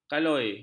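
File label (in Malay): "kaloi", Malay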